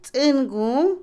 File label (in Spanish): Otomí, mujer; arete, aro; Ropa; INALI